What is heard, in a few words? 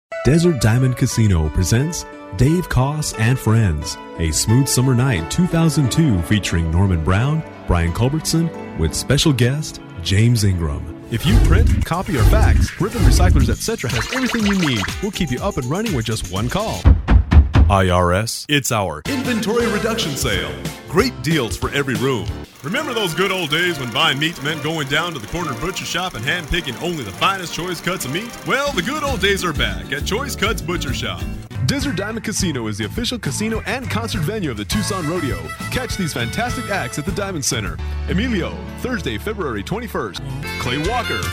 Eng, english males